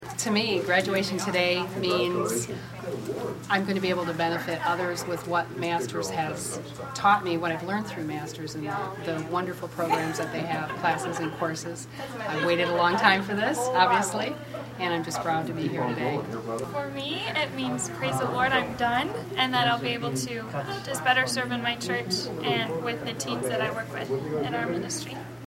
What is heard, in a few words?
Testimonial